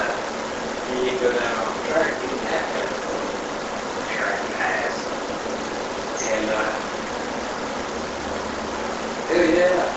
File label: Unexplained Voice Amplified; (You're an ass)